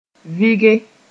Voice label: "vɩge", moore